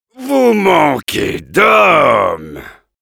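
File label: Heavy taunts, french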